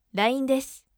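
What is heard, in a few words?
voice line desu firm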